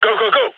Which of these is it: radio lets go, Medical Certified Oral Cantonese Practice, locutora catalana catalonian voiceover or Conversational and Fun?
radio lets go